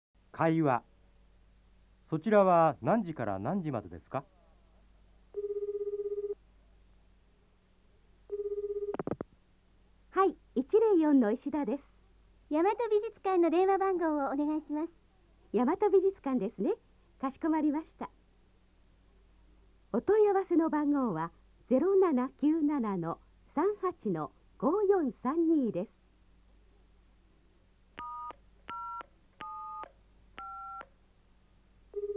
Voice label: nihongo dialog, jap